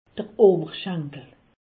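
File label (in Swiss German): "owerschenkel", Alsatian; medecine; cuisse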